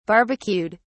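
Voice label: "barbecued", British English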